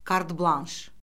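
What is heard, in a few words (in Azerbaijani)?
"kart-blanş", Azerbaijani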